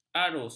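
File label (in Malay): "arus", Malay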